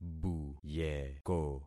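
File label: "buyeko", concatenated syllables; Affixing experiment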